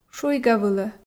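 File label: "шуйгавылӧ", Komi, female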